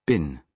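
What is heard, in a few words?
"bin", British English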